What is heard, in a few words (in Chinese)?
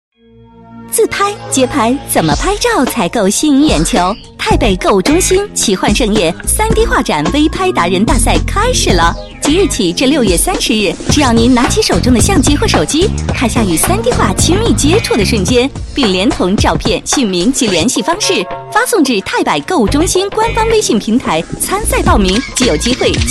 女 广告; 奇幻盛宴